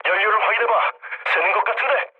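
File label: Korean tank damaged mood low, speech; War Thunder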